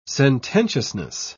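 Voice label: "sententiousness", British English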